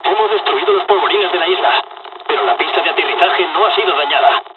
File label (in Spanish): dialog, dialogs spanish; War Thunder